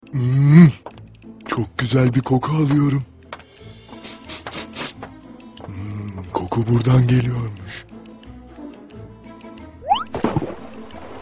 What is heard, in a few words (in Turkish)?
cizgi film seslendirme